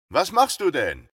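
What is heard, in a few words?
dialogue German; A Western Drama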